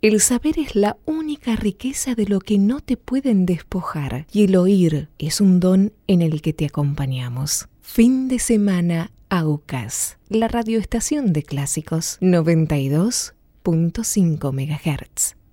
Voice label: Spanish Latin